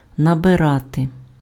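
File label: "набирати", Ukrainian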